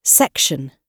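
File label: "section", British English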